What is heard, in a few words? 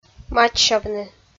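"мачсявны", Komi, female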